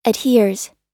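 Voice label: "adheres", American English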